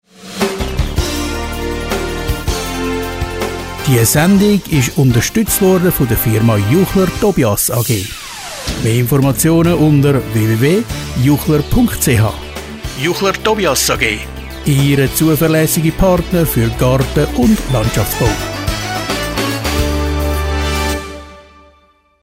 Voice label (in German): Werbung Outro